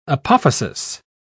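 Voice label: "apophasis", British English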